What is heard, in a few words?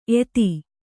"ಏದು", Kannada